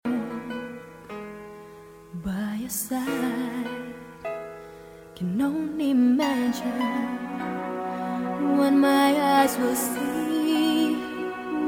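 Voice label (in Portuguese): aniv. colega trabalho f, Voz Feminina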